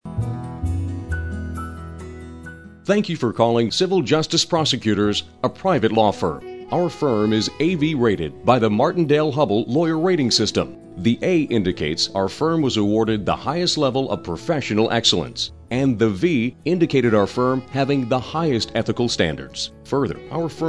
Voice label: Law Office Male On Hold